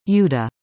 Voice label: "Yuda", english; indonesian boy names

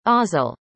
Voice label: "Aazil", arabic; indonesian boy names

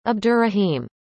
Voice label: "abdurrahim", arabic; indonesian boy names

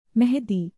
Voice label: "Mahadi", hindi; indonesian boy names

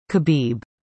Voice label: "Khabib", english; indonesian boy names

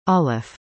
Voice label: "alif", english; indonesian boy names

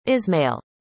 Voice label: "Ismail", arabic; indonesian boy names

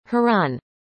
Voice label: "harun", arabic; indonesian boy names

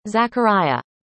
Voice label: "Zakariah", arabic; indonesian boy names

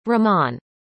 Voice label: "rahman", arabic; indonesian boy names

